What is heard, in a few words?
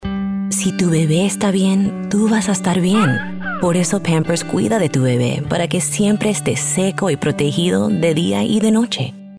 Spanish Commercial Warm Caring Conversational; Pampers